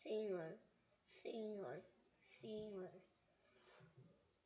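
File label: "singer", spoken word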